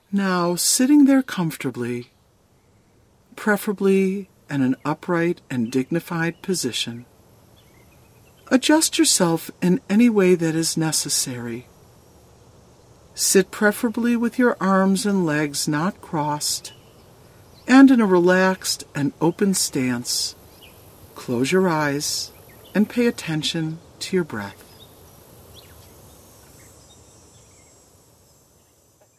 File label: beginners meditation country day